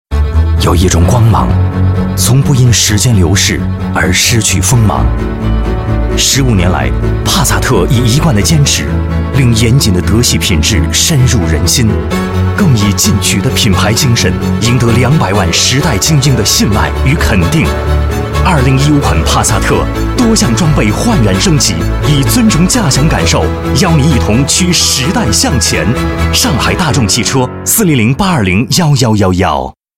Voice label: 男 汽车广告 radio; 帕萨特 品牌篇; 国语男声配音

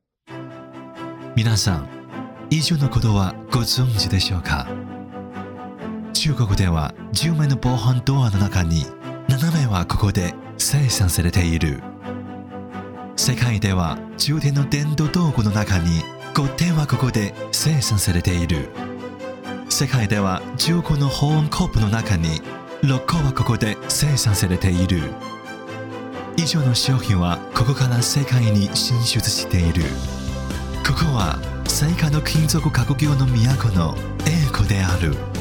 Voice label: 男 日语 宣传; 永康

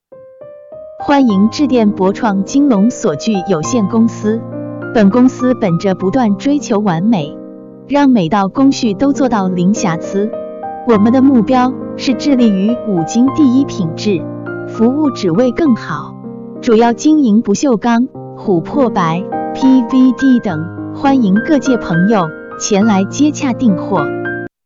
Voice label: 女 彩铃

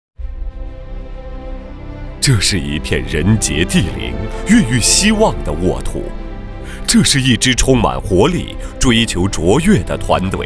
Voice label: 男 专题 包头

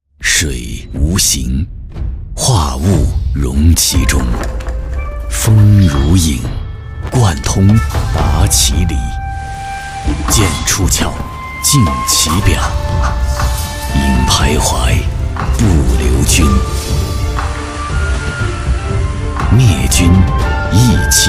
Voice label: 男 浑厚 洗碗机; 美的洗碗机

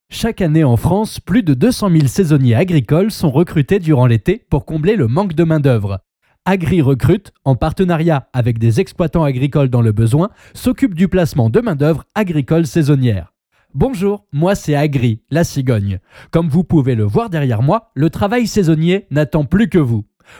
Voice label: 法语男,专题介绍

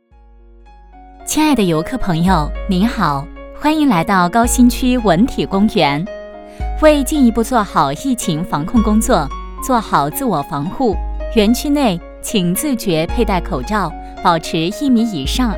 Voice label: 女 亲切 温馨提示

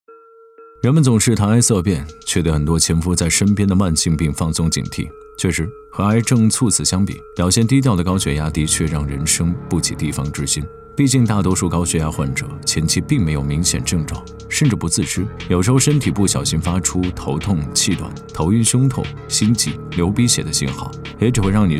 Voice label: 男 特色解说; 回形针

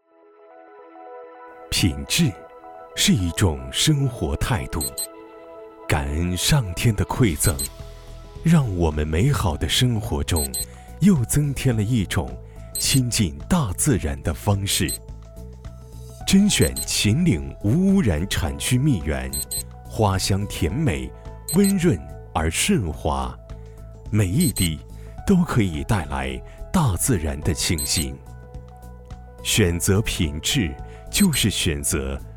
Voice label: 男 广告; 洋槐蜂蜜

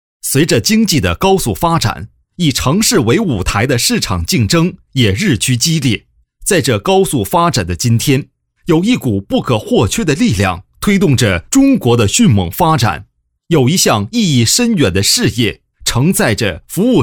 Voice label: 男 宣传原声; 汽车维修设备公司宣传原声

